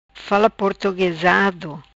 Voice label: "Fala Portuguesado", Macanese